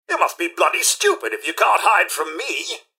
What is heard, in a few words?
generic robot alert to combat; mrhandy; npc